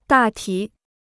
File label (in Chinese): "大体", Chinese